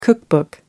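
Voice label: "cookbook", British English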